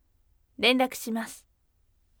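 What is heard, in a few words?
voice renraku shimasu firm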